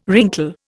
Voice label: "wrinkle", Indian

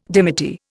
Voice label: "dimity", Indian

